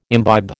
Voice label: "imbibe", American

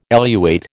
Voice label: "eluate", American

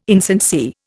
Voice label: "insincere", Indian

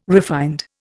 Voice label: "refined", Indian

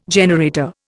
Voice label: "generator", Indian

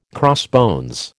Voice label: "crossbones", American

